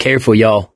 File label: warn careful, survivor voice mechanic; source engine; left 4 dead 2